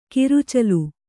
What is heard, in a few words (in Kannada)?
"ಕುರುಚಲು", Kannada